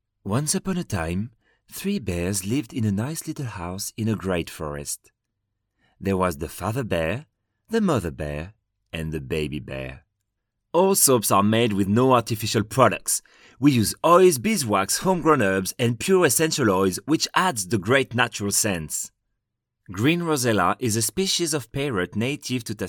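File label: French English male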